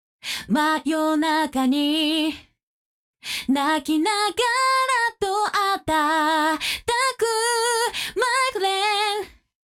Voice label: vocal solo on